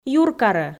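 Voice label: "юркарӧ", Komi, female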